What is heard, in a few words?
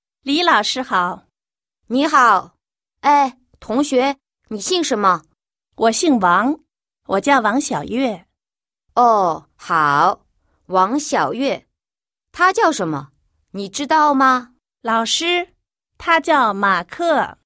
chinois lilaoshihao